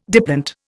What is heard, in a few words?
"diplont", Indian